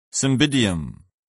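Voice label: "cymbidium", English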